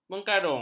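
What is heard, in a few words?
"mengkarung", Malay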